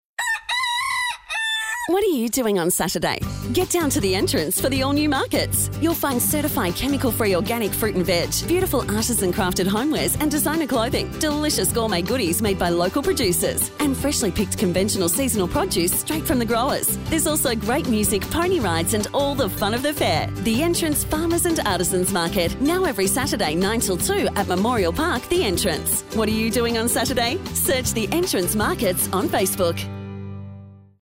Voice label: radio advert